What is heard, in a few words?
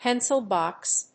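"Pencil Box", English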